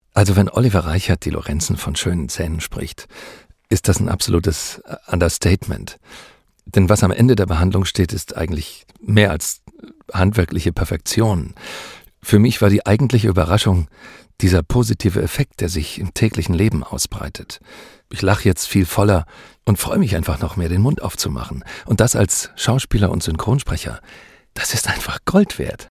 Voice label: Testimonial